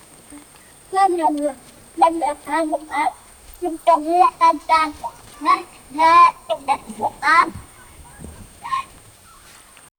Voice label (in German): ein kleines 10 jhriges, chase